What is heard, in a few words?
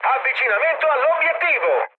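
Italian near waypoint mood high, speech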